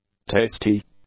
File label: "tasty", English; adjectives